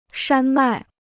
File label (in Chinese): "山脉", Chinese; shān mài; mountain range